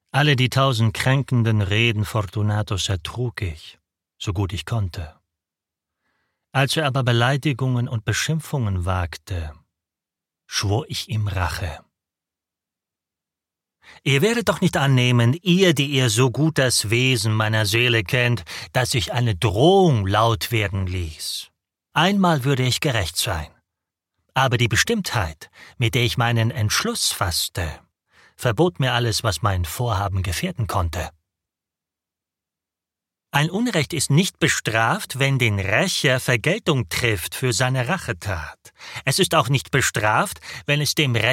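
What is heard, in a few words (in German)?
hörbuch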